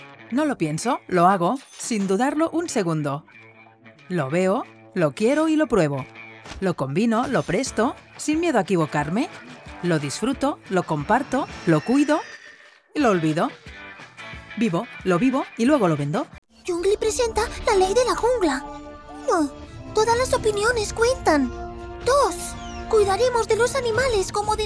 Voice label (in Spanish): Mix Publicidad, mujeres 35 a 50